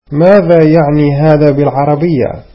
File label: arabic What is that called in Arabic